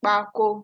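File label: "kpako", Nigerian Pidgin, female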